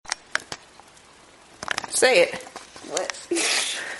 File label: squeaky voiced teen